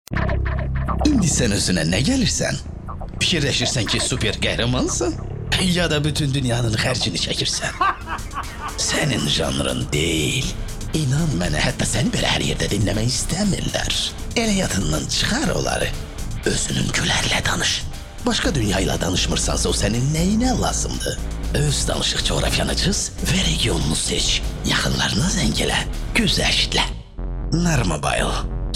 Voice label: Azerice Erkek; seslendirme

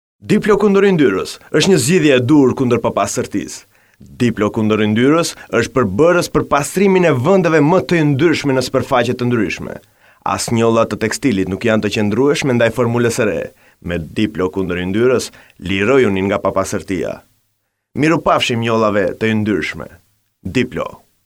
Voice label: Arnavutluk Erkek, arnavutca seslendirme

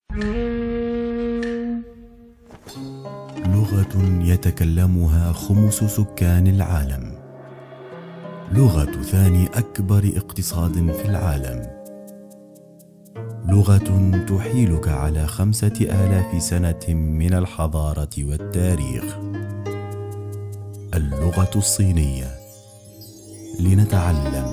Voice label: Arapca Erkek Klasik Arapca Tunuslu, seslendirme; erkek sesler

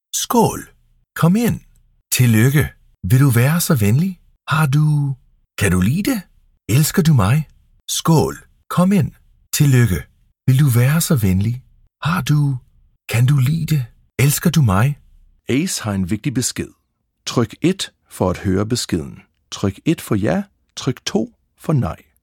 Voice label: Danca Erkek, seslendirme

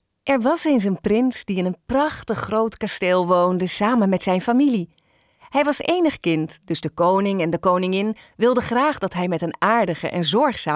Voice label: Hollanda Kadin Felemenkce

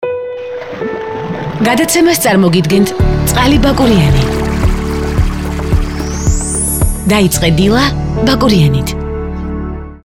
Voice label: Gurcuce Kadin; seslendirme

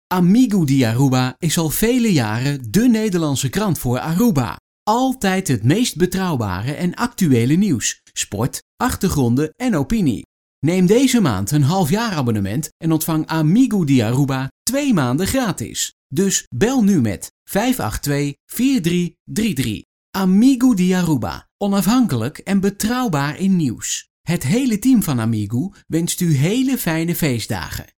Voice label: Hollanda Erkek Felemenkce; hollandaca; seslendirme

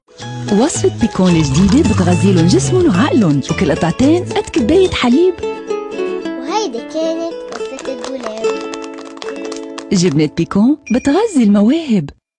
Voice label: Arapca Kadin Lubnan Arapcasi, seslendirme; kadin sesler

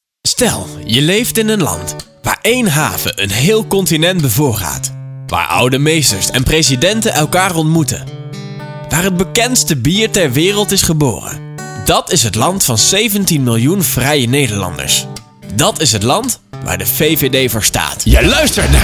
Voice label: Hollanda Erkek; seslendirme; hollandaca

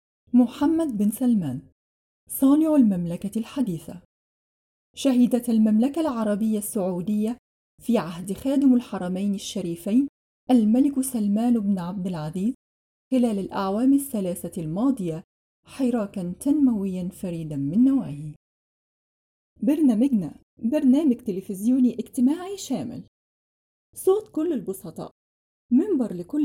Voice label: Arapca Kadin Klasik Arapca; kadin sesler; klasik arapca seslendirme

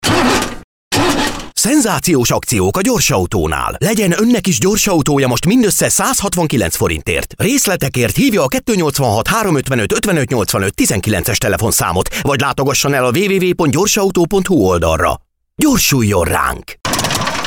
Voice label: Macarca Erkek, seslendirme; erkek sesler

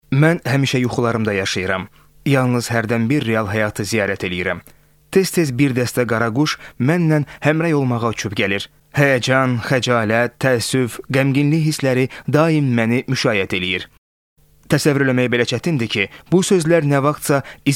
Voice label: Azerice Erkek, seslendirme

